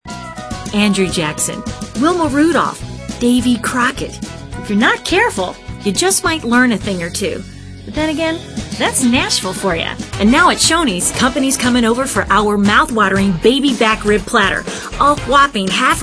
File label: female, late30s early40s clean